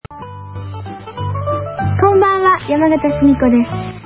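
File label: "konbanwa", Japanese; yamagata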